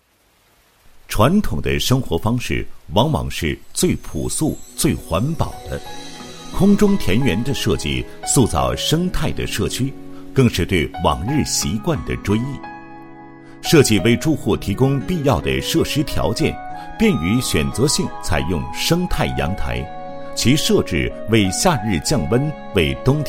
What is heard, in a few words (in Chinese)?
男 工程设计, 配音; 郑东新区设计理念